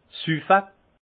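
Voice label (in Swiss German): "suffe", alsace Haut-Rhin; vin; boire terme grossier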